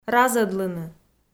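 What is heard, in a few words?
"разӧдлыны", Komi, female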